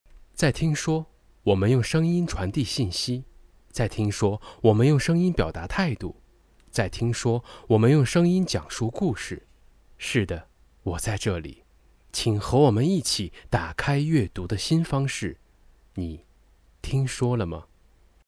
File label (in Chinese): 讲述类